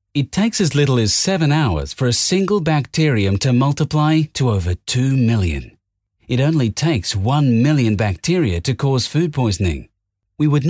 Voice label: English Australia